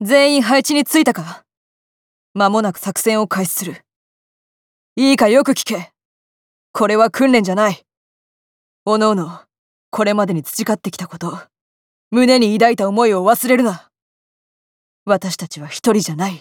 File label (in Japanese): 女性戦士